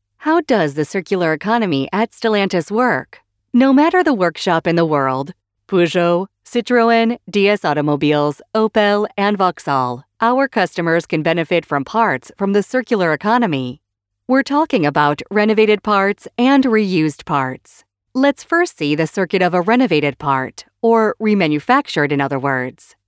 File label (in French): voix off femme uk